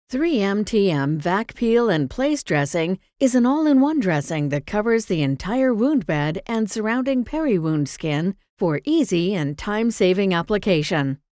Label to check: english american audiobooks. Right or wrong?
Right